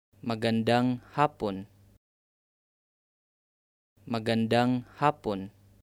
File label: Good afternoon Tagalog